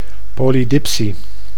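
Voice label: "polydipsie", Dutch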